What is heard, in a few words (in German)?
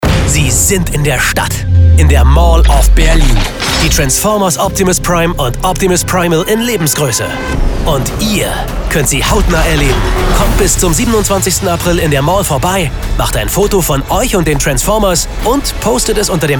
Werbung Funk; Transformers Special Mall of Berlin